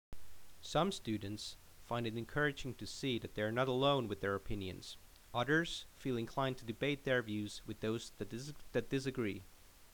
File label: Narration